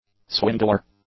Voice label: "swindler", english; nouns